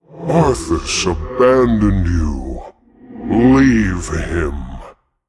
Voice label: Male Demon

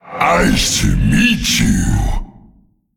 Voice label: Male Elemental Play

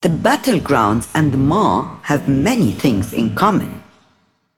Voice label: Female Broker

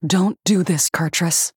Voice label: Female Human Mission Intro

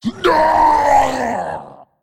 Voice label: Male Dreadlord Death

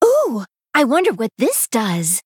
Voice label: Female Night Elf Play

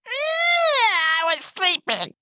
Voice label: Male Imp Play

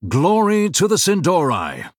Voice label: Male Blood Elf

